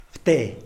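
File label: "pte", Tlacochahuaya Zapotec; wasp nest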